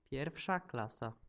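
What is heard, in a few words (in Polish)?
"pierwsza klasa", Polish; multimedia glossaries; transport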